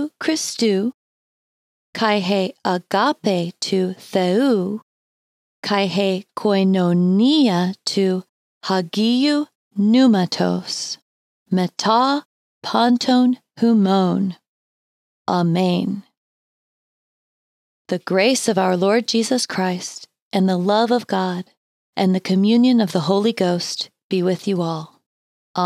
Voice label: 2 Corinthians 13 14 In Greek Slo